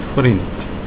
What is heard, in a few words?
friend, Afrikaans